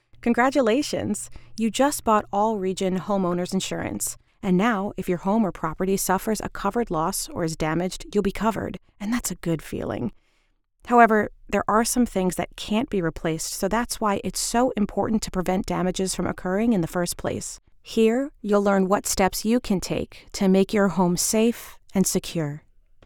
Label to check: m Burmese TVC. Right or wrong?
Wrong